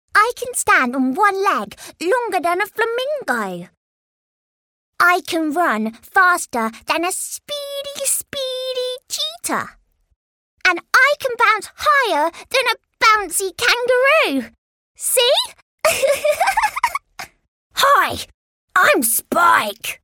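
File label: English UK, character; GoLocalise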